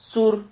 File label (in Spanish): "sur", silabas